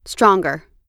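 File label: "stronger", US English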